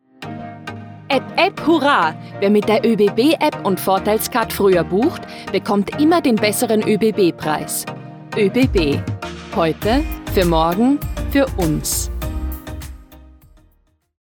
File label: Ad Austrian; OEBB